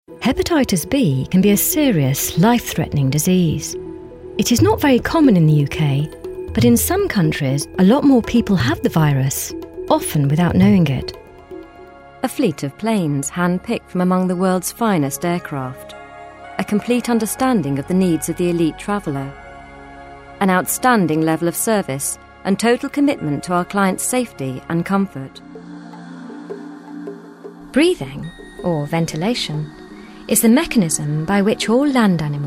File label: Documentary Corporate; VOICDEFOX